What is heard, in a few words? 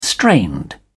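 "strained", British English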